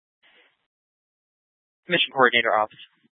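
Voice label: Incident Tactical, scanner audio recording; Incident Tac 2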